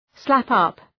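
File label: "slap-up" English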